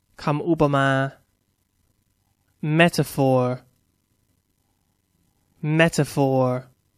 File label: "metaphor", English; Noun